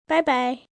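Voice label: "Bye bye" female; Greetings And Goodbye; Goodbyes; VoiceBox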